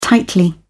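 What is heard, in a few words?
"tightly", British English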